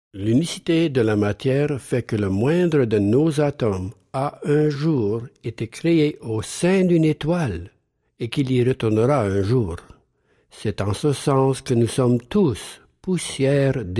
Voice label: french m narration; voice demos

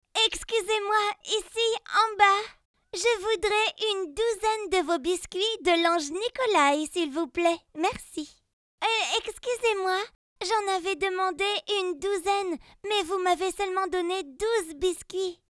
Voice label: french f character; voice demos

